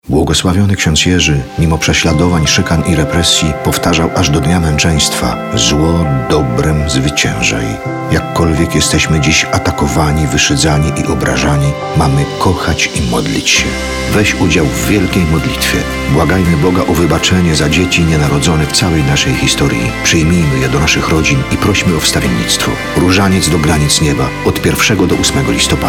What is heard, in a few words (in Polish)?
spot radiowy; Rozaniec Do Granic Nieba; Zwyciezaj